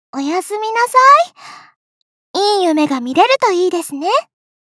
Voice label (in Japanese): お休みコール, システムボイス; 桐乃